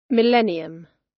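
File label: "millennium" British English; Time, history; Thời gian, lịch sử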